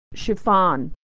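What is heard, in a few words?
"chiffon", American English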